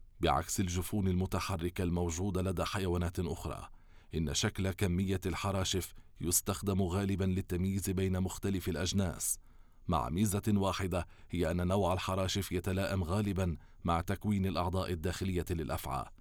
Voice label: Documentary